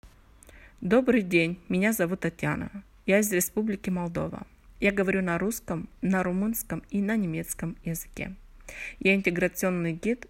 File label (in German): russisch